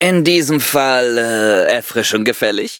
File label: defeat, de; cookie run